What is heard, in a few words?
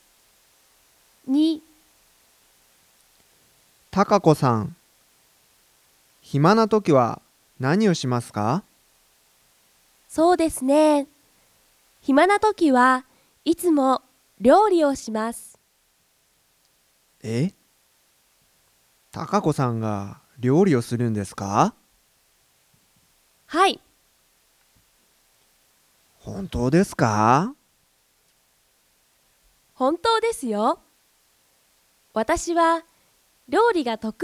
Conversation